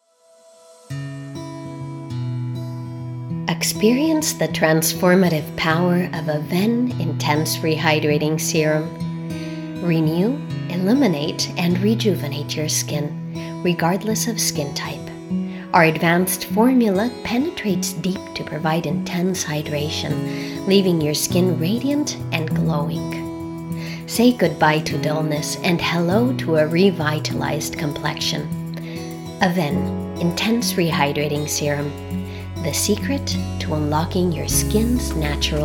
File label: corporate, English US, female